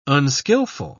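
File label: "unskilful", British English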